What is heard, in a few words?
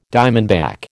"diamondback", American